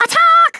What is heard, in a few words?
"fireball", Scouser